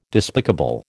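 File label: "despicable", American English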